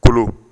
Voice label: "kolo", Bambara; corps